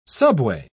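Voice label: "subway", English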